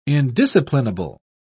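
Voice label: "indisciplinable", British English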